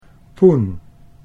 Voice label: "poen", Dutch